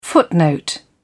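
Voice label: "footnote", en, uk British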